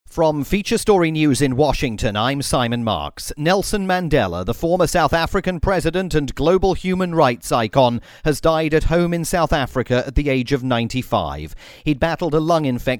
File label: newscast